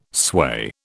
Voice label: "sway", British